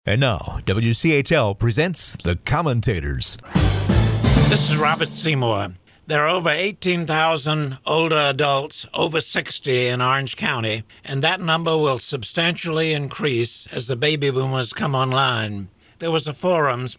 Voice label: Commentary